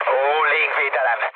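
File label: Hungarian damaged aa mood low, speech; War Thunder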